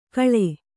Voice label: "ಕಳೆ", Kannada